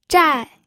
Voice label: "zhai4", pinyin